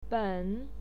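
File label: "ben", Mandarin Chinese, third tone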